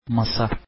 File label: table, turkish